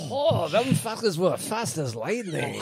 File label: voice, asian voices